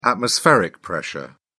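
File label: "atmospheric pressure", English, uk British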